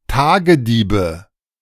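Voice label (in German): "Tagediebe", German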